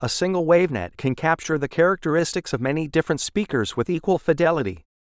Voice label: us english voice